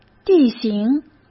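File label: "地形", Mandarin Chinese; hsk; chinese-flashcards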